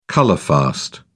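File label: "colour fast", British English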